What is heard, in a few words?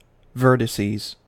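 "vertices", US English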